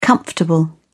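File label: "comfortable", British English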